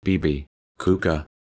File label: Synthetic Human Speech Beebee Kookah